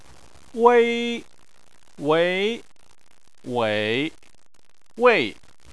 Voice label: "wei", chinese